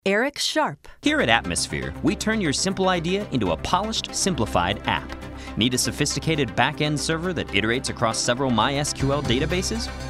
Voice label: Commercial